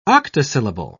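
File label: "octosyllable", English, British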